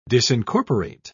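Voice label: "disincorporate", British English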